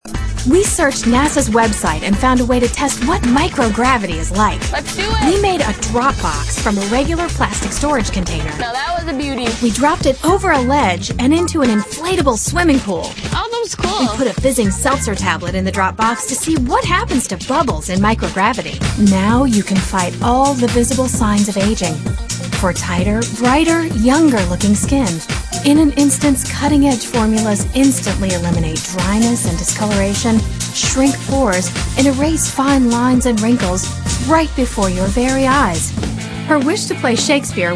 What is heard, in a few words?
female narration